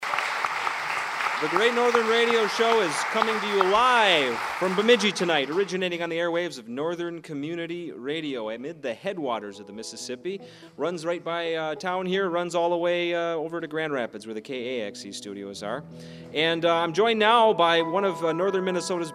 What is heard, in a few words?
interview; Bemidji